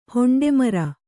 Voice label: "ಹೊಂಡೆಮರ", Kannada

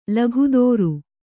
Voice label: "ಲೋಕೋತ್ತರ", Kannada

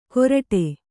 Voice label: "ಗೋರಟೆ", Kannada

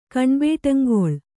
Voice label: "ಕಣ್ಬೇಟಂಗೊಳ್", Kannada

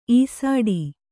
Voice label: "ಈಸಾಡಿ", Kannada

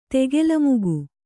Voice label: "ತೆಗೆಲಮುಗು", Kannada

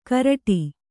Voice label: "ಕರಡಿ", Kannada

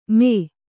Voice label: "ಮೇ", Kannada

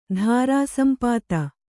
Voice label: "ಧಾರಾಸಂಪಾತ", Kannada

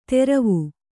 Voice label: "ತೆರವು", Kannada

